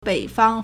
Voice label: "bei3fang1", Chinese

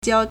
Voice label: "jiao1", Chinese

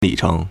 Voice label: "li3cheng2", Chinese

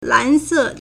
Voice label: "lan2se4", Chinese

